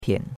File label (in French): "pian3", chinois; Cours-de-Chinois